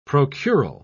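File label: "procural", English, British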